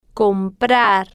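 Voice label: "comprar" Spanish, female, slow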